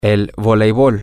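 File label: volleyball, Spanish, male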